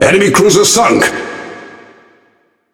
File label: Speech Destroy Enemy Cruiser, EN GB; World Of Warships